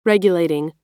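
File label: "regulating", American English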